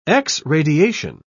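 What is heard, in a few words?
"x-radiation", English, British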